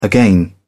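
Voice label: "again", British English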